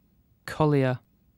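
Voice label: "collier", British English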